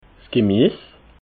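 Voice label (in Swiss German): "gemies", Alsatian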